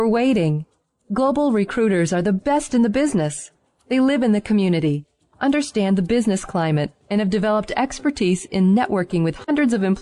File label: Female Voice Sample